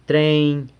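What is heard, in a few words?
"treiny", train, San Lucas Quiaviní Zapotec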